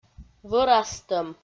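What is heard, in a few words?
"вӧрастӧм", Komi, female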